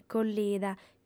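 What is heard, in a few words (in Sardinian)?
"collida", female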